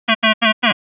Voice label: Final Focus Statement glides; gliding tones